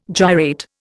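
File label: "gyrate", Indian English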